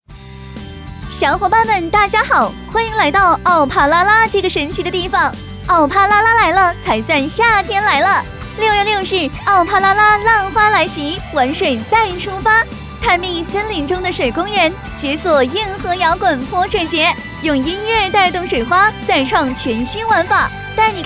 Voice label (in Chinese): 激情广告女